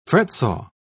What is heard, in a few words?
"fretsaw", pronounce